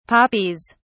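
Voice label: "poppies", British English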